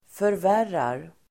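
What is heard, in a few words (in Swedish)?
"förvärrar", Swedish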